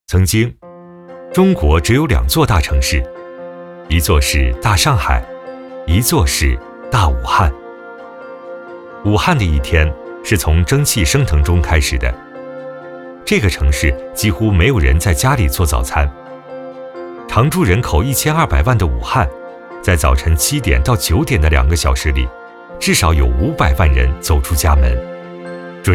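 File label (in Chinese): 男 记录片 武汉过早, 浑厚大气, 普通话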